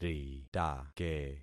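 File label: "ritake", concatenated syllables; Affixing experiment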